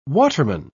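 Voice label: "waterman", British English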